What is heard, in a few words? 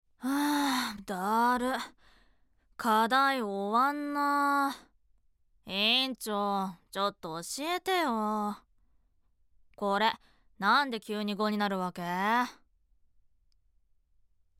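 voice sample, languid student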